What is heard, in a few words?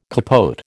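"capote", American English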